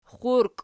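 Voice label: "xxurki" nominative, Archi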